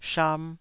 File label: "sham2", taiwanese